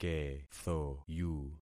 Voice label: "kethoyu", concat syllables; Affixing experiment

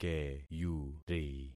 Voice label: "keyuri", concat syllables; Affixing experiment

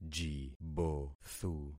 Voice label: "jibothu", concat syllables; Affixing experiment

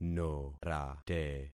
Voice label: "norate", concat syllables; Affixing experiment

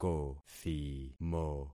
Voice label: "kothimo", concat syllables; Affixing experiment

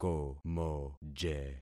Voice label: "komoje", concat syllables; Affixing experiment

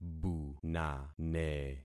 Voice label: "bunane", concat syllables; Affixing experiment

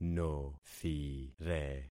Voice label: "nothire", concat syllables; Affixing experiment

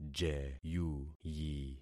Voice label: "jeyuyi", concat syllables; Affixing experiment

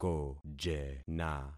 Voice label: "kojena", concat syllables; Affixing experiment

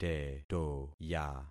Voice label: "tetoya", concat syllables; Affixing experiment

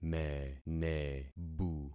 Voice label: "menebu", concat syllables; Affixing experiment

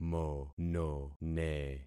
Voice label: "monone", concat syllables; Affixing experiment

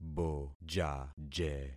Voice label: "bojaje", concat syllables; Affixing experiment

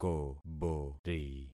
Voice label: "kobori", concat syllables; Affixing experiment

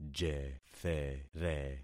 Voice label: "jethere", concat syllables; Affixing experiment

